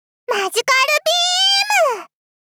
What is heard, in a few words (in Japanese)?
マジカルビ～～ム！幼女×高音域